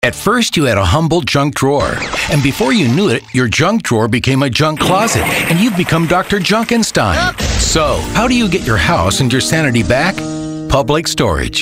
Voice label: storytellers humorous

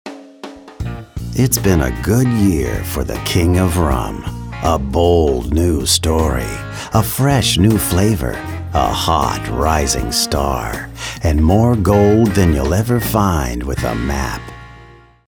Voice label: Announcer Raspy

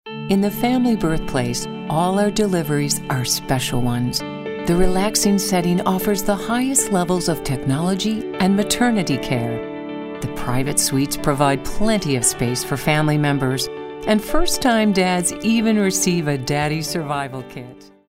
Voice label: warm

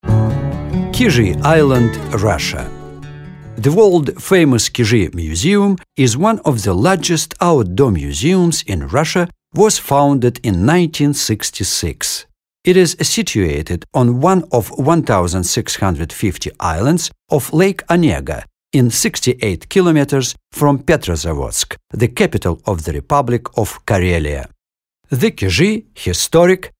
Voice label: English

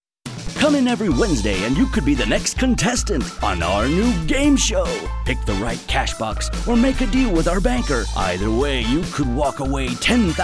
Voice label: gameshow

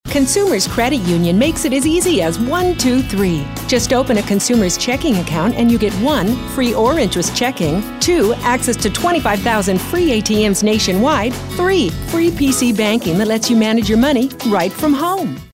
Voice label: upbeat

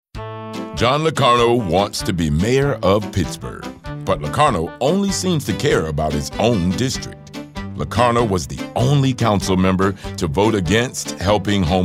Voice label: Negative Political

